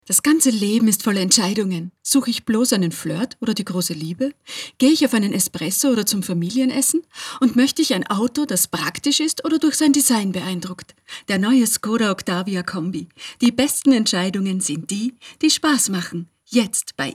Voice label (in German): Werbung; Skoda; Broadcast Master